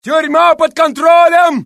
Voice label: Russian; mohaa; dialogue